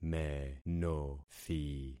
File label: "menothi", concat syllables; Affixing experiment